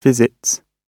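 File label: "visits", British English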